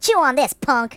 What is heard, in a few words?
Fire, voices Mobster; hedgewars